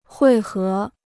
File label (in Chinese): "汇合", Chinese